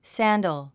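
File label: "sandal", English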